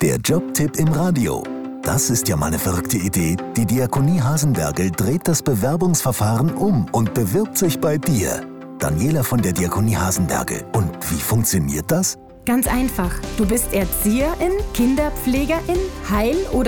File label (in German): Infomercial; Diakonie Hasenbergl; 40s